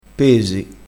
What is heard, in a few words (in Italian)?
"pesi", crespinese